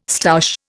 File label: "stoush", Indian